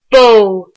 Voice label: "cow", Irish

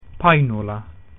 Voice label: "coat", Latin

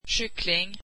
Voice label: swedish chicken

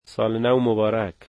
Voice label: Happy new year, Dari

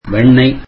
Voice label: tamil butter

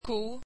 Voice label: swedish cow

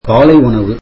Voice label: tamil breakfast

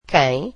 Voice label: portuguese who

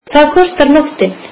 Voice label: icelandic What is the charge per night

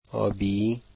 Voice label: blue, dari

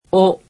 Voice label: "o", japanese; alphabet

